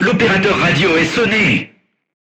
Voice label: voice message machine gunner contused, crew tanks french